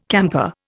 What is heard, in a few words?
"camper", Indian